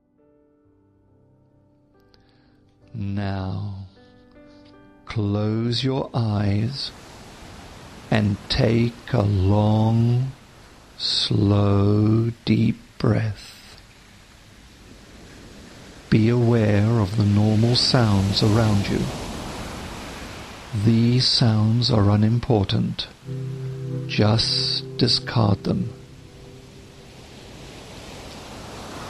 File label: hypno induction